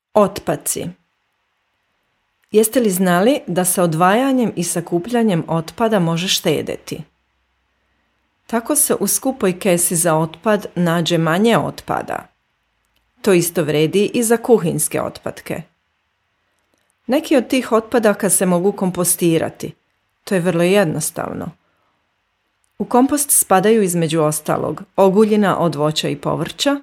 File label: serb; abfall